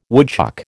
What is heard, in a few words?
"woodchuck", American